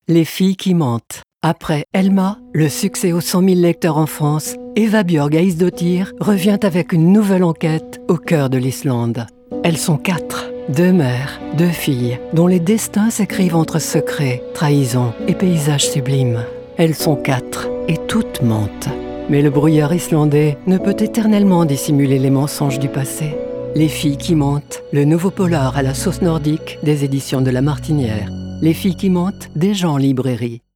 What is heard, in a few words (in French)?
Pub Radio; Editions la Martiniere; Les filles qui mentent